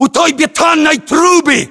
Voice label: landmark near concrete pipe, battlechatter ru; localized english